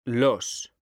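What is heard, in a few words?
"los", Spanish, male; mekanta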